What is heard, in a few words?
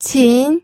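"qin", Mandarin Chinese, second tone; pinyin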